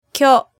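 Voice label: "kyo", Japanese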